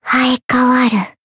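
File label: Voice healed, Japanese; nagi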